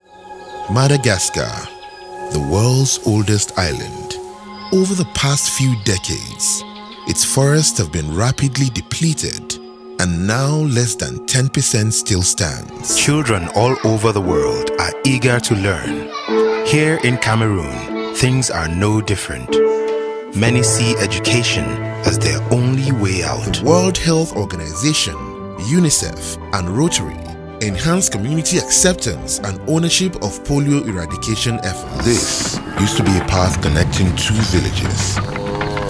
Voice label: Male African & International accent voiceover narration